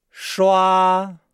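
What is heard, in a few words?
"shua", Chinese; Nihao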